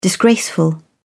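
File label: "disgraceful", British English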